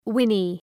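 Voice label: "whinny", English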